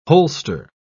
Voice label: "holster", pronounce